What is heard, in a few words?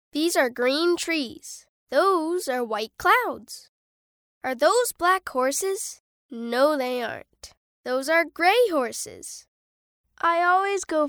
boy canadian voice over narrative